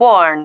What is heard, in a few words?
"warn", female